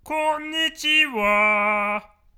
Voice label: ミュージカル風のこんにちは

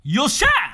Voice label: よっしゃぁ！（男性）

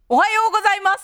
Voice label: おはようございます（女性）

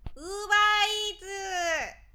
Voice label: ウーバーイーツ 女性

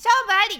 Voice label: 勝負あり！（女性）

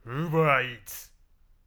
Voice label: ウーバーイーツ.男性

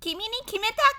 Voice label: 君に決めた！（女性）

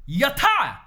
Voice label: やった！, 喜ぶ; 挨拶・掛け声; はーいどーもー

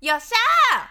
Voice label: よっしゃぁ！（女性）

